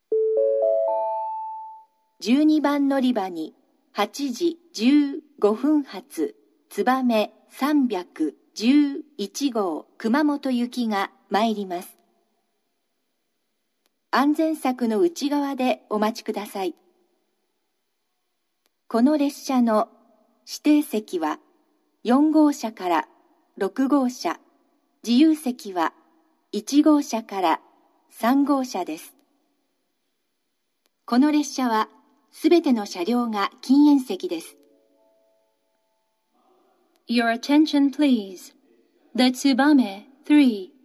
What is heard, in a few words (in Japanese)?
12番のりば予告放送; 新玉名; つばめ311号 熊本行き